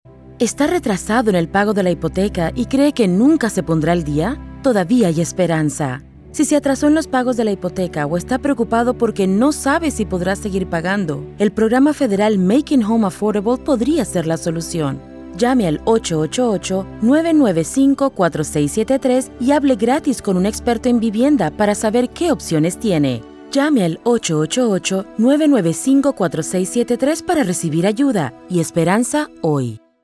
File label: Span Fem